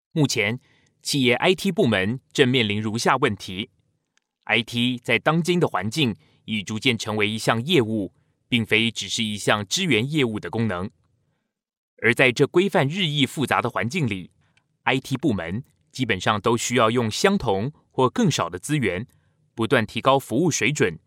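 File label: Chinese Taiwanese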